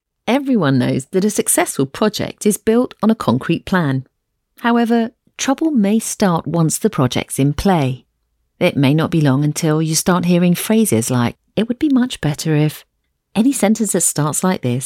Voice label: turkish voice elearning